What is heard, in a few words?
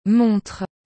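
"montre", French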